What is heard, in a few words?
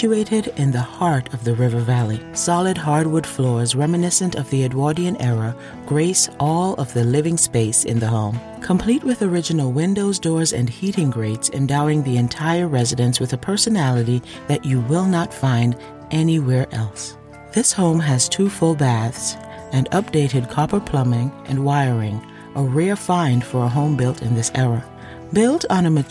narration; REAL ESTATE NARRATION